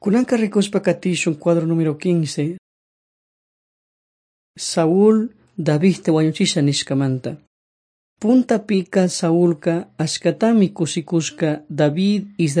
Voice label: servants of god quichua chimborazo